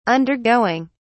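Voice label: "undergoing", English, British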